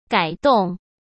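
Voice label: "改动" Mandarin Chinese; chinese flashcards; hsk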